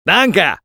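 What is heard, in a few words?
Medic thanks, French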